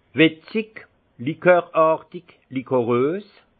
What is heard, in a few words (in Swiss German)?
"wirzig", alsace; vin; liquoreux